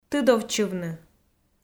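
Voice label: "тыдовтчывны", Komi, female; audio dict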